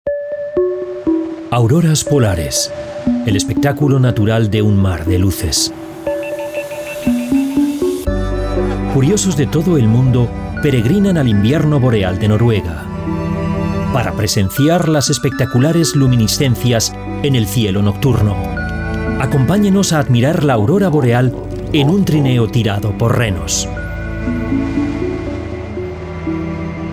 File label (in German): ESP Werbung Dynamisch